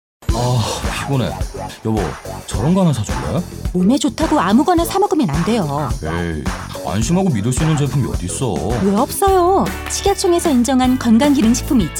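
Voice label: Korean, female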